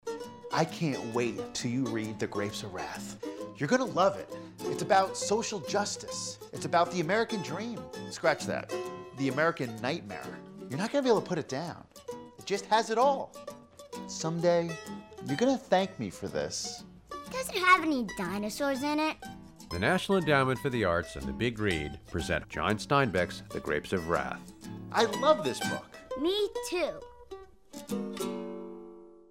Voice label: radio